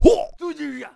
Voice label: Asian Ship Vox; Warlords; Units